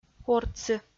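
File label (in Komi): "ортсы", Komi, female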